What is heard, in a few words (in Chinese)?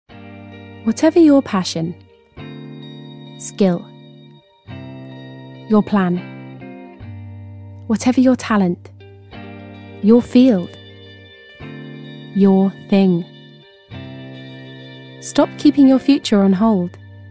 外籍英语女声金融借贷中心宣传广告深情低沉